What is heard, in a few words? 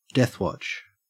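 "deathwatch", Australian English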